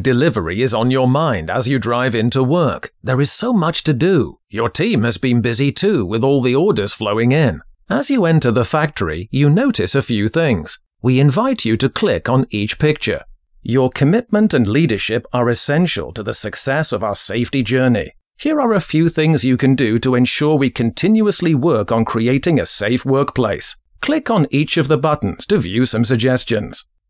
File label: E LEARNING